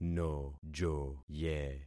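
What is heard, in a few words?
"nojoye", concatenated syllables; Affixing experiment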